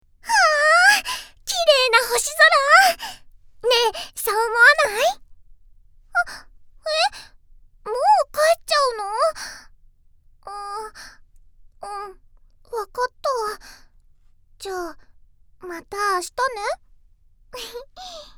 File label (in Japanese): 元気な女の子